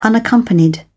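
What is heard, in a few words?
"unaccompanied", British English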